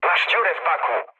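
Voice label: damaged oil, mood med, Polish speech; War Thunder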